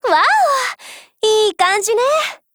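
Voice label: Japanese; M1891; FEED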